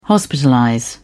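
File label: "hospitalize", British English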